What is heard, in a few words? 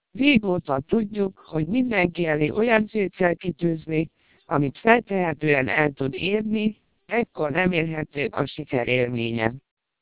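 Hungarian speech, TWELP 480 bps vocoder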